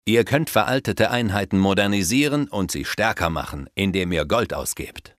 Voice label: Upgrade Units, Speech German Advisors; Civ5